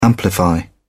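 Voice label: "amplify", British English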